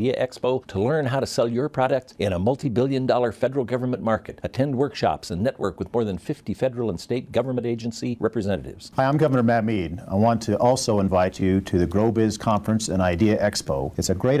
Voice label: wyoming radio news